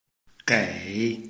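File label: "gei", Chinese, tone 3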